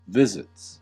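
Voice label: "visits", US English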